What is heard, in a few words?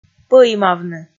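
"пӧимавны", Komi, female; kpv audio dict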